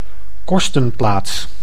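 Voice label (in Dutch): "kostenplaats", Dutch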